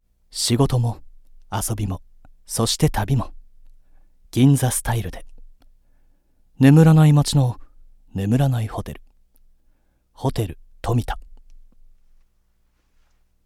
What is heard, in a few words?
TV CM